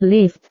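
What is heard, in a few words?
"lift", Indonesian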